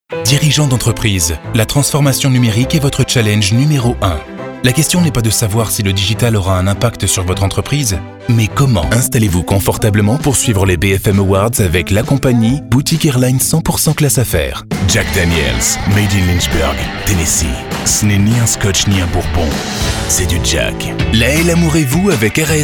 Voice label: french commercial